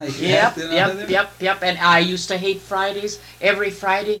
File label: Personal history with 70 year old male and 77 year old female Utica Minnesota, american english dialect